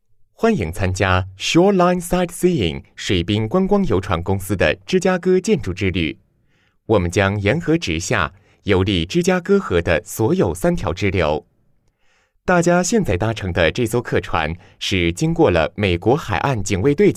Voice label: Narration